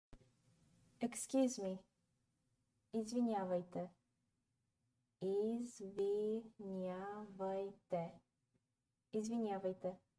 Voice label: Excuse me, bulgarian phrasebook; the talking bulgarian phrasebook

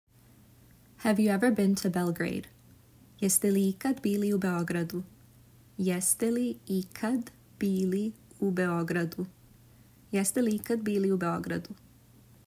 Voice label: Have you ever been to Belgrade, serbian

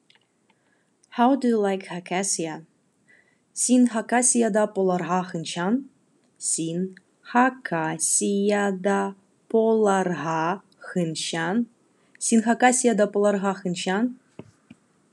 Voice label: Khakas How do you like Khakassia

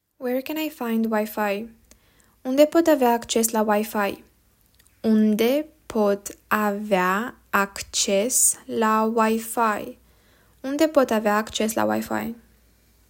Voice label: Where can I find WiFi, romanian

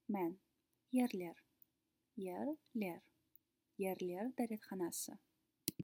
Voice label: Kazakh Men toilet, phrasebooks

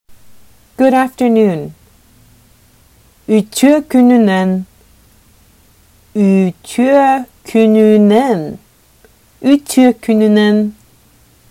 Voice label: Sakha Good afternoon

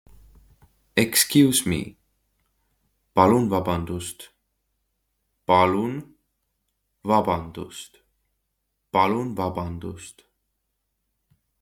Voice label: Excuse me, estonian; the talking estonian phrasebook

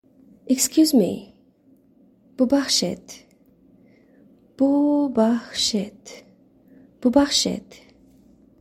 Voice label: Excuse Me Tajik

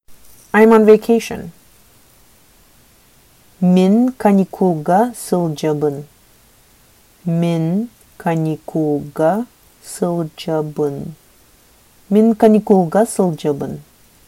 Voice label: Sakha I am on vacation

